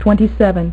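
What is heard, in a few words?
"twenty-seven", female; MacCribbage; InterStellar MacCribbage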